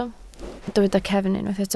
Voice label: "cefn", Welsh